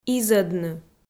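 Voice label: "изӧдны", Komi, female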